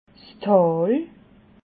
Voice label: "tal", alsace

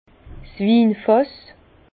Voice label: "winfass", Bas Rhin; vin; tonneau à vin

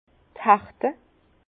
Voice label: "herte", Bas Rhin; vin

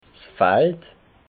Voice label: "fald", alsace

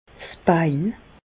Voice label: "'s Bein", Bas Rhin; jambe; medecine

